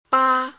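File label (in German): Phoneme, chinesisch; ba 1; Silben; Chinesischen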